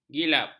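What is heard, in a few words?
"gilap", Malay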